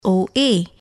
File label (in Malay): "oa" huruf